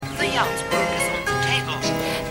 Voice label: Sprachkurs Englisch; Pushing Daisies

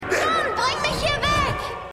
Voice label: Kind, Stimmproben

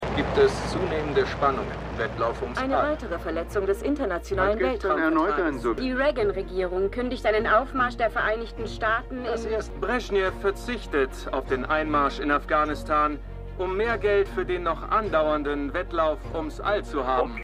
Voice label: Nachrichten; Stimmproben; For All Mankind

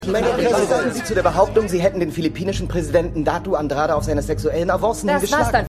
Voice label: Reporter; Reporter Steve; Madam Secretary

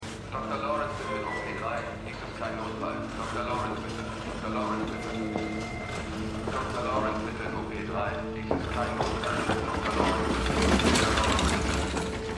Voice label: Krankenhausdurchsage; Slow Horses

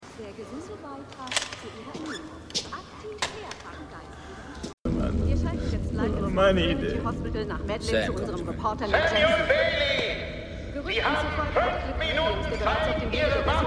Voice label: Werbesprecherin, Nachrichtensprecherin

